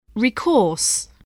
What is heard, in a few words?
"recourse", voice, english